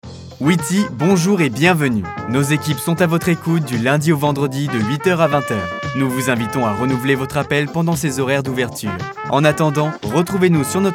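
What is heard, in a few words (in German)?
French Fremdtake Freundlich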